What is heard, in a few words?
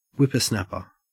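"whippersnapper" English, Australian; pronunciations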